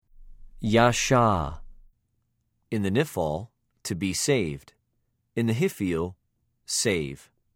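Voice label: "יָשַׁע", Hebrew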